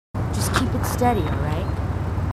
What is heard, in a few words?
marines female, marine tough